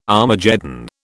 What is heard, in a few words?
"Armageddon", British